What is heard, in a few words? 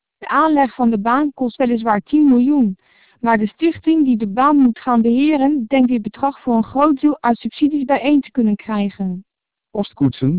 Dutch; TWELP DMR; vocoder